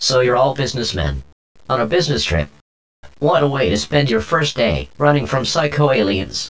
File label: worker vox